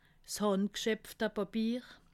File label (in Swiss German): "handgschepfte", alsace